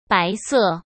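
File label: "白色", Mandarin Chinese; chinese-flashcards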